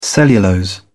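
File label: "cellulose", British English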